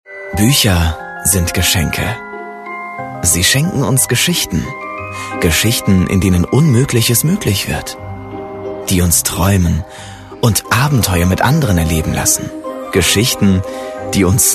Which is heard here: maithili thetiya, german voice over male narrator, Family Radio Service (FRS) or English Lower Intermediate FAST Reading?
german voice over male narrator